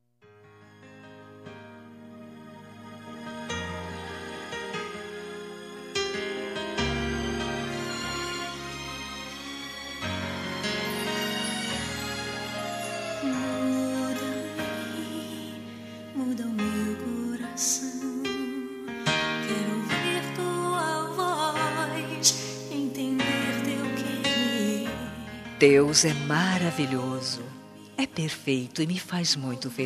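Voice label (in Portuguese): Evangélica Aniversário Romantico, female, telemensagens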